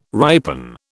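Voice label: "ripen", British English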